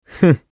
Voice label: 防御1, クール系ボイス; 戦闘ボイス

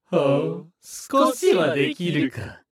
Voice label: 化物人ボイス, 戦闘開始3; 戦闘ボイス

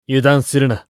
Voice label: 交代ボイス(戻)2, クール系ボイス; 戦闘ボイス

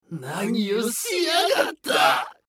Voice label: 化物人ボイス, 状態異常2; 戦闘ボイス

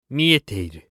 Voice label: 回避・パリィ2, クール系ボイス; 戦闘ボイス

